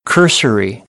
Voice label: "cursory", American; ɝː - ɜː

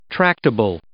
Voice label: "tractable", English

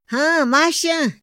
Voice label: "haa maxa", Otomí; INPI; INALI

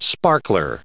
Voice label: "sparkler", English

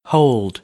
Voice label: "hold", American; oʊ - əʊ

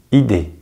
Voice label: "idée", French

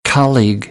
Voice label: "colleague", American English

